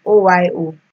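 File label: "O.Y.O", Nigerian Pidgin, female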